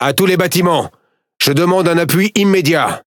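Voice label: French Speech Quick Commands Sos; World Of Warships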